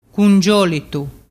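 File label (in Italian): "cungiolitu", Vernazzese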